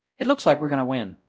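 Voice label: Voice Acting Spanish, male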